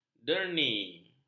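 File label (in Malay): "derni", Malay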